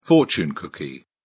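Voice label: "fortune cookie", English, British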